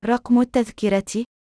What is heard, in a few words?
ticket number, voice ar; mohemm-flutter-app